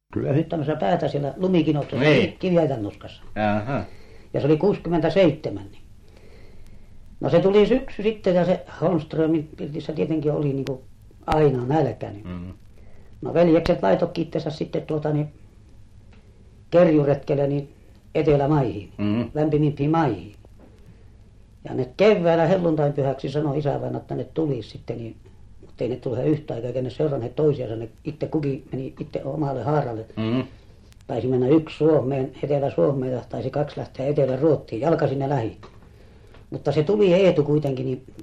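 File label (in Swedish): Övertorneå Norrbotten, dialekter; Folkminnen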